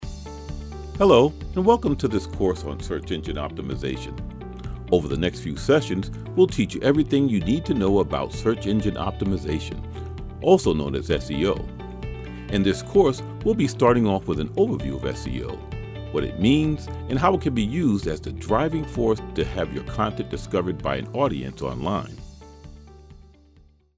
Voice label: SEO E Learning

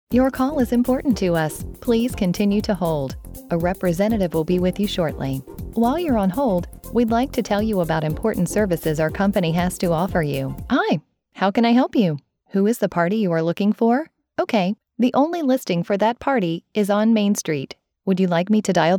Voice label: IVR

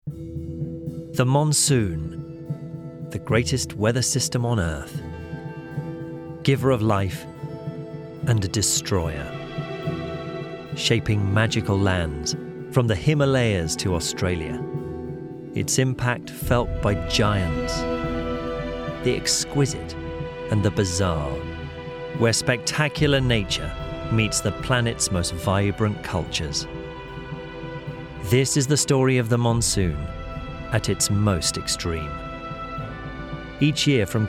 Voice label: documentary voiceover

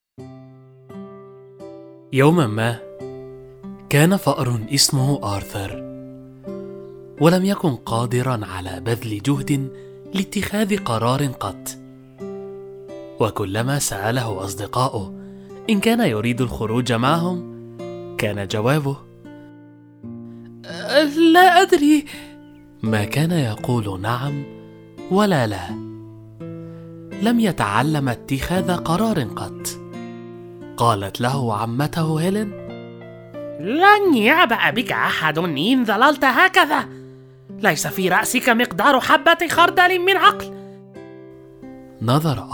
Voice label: narration sample

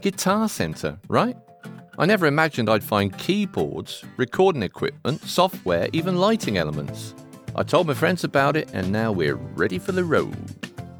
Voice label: COMMERCIAL

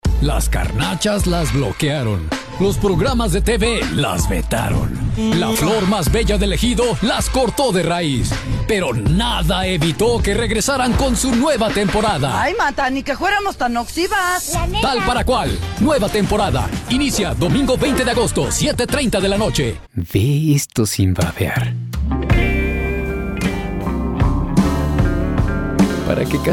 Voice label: Male Spanish; Reel

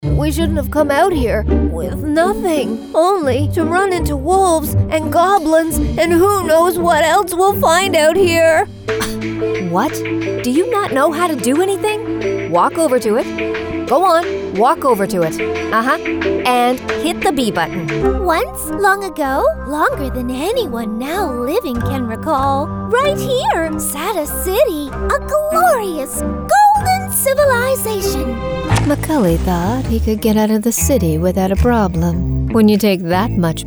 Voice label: Character

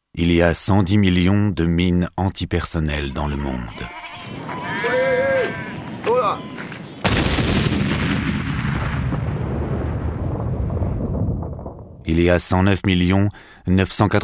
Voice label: Narr FR Deep; Red Cross

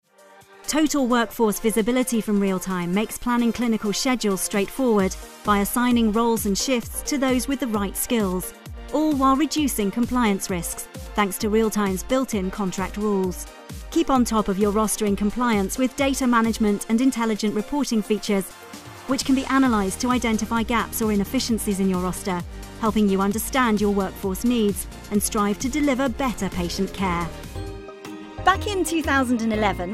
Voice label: Narration

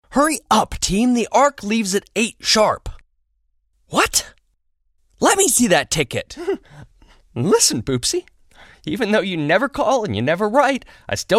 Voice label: ANIMATION

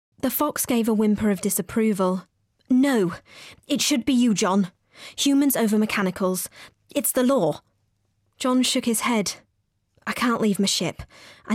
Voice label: Audiobook

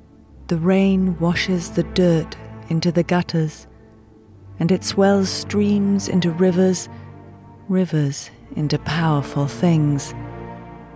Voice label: narration sample with music; Gaiman

